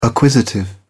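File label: "acquisitive", British English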